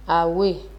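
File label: "away", Nigerian Pidgin, female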